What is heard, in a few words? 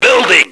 building, radio, male